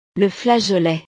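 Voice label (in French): "le flageolet", French; el frijol; verduras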